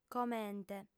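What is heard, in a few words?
"comente", female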